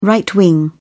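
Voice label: "right-wing", British English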